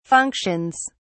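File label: "functions", British English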